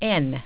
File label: "n", English